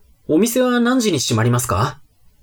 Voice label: man